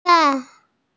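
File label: "yu2wang3" Mandarin Chinese, female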